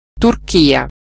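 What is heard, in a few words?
"turkey", Italian; gcompris-data; geography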